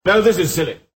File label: silly